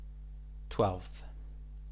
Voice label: "twelfth", English